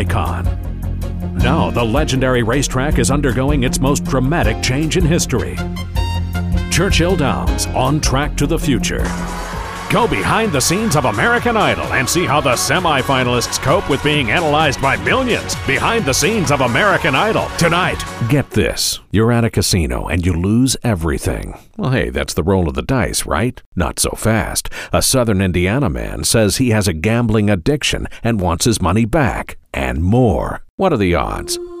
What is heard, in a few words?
tv news promo